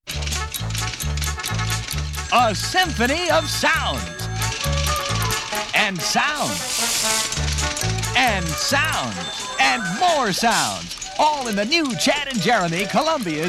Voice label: Radio Commercials; 1967